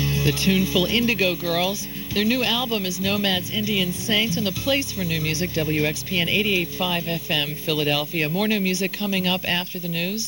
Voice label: announcer